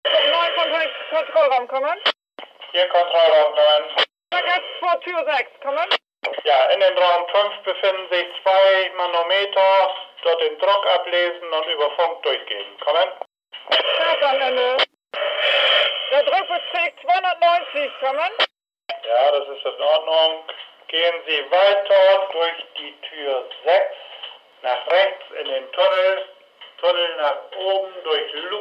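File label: fire department radio message; fire dep radio msg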